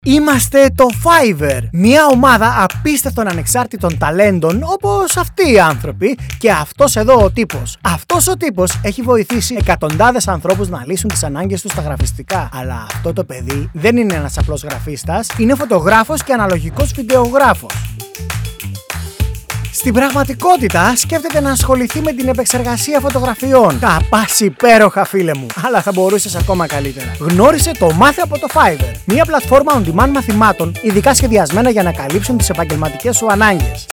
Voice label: greek corporate videos

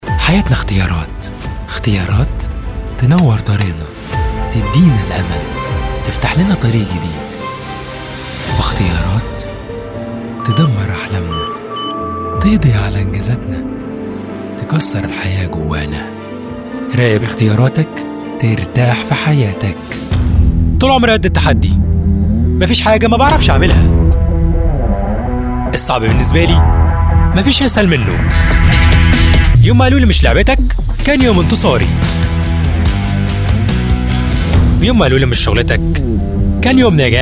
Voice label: arabic commercial; Árabe; Egipto

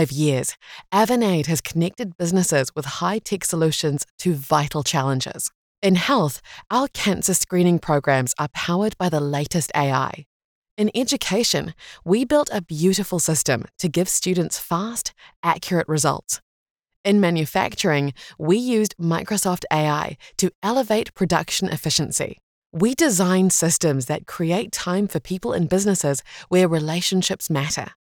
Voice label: english new zealand medical narration; voice actor

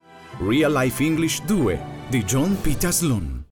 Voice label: italian promos, italian voice actors

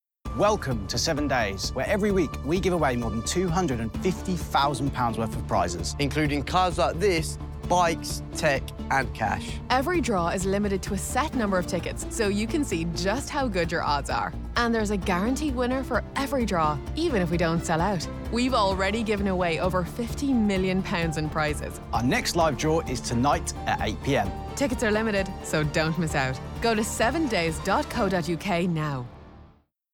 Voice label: english british tv shows

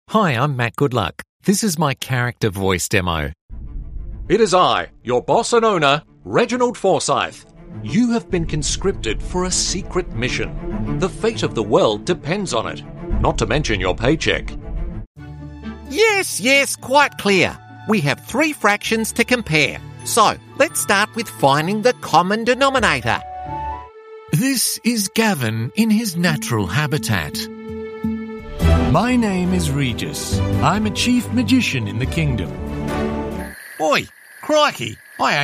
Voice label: english australian animation

